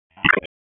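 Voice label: EMS Dispatch, p25; wake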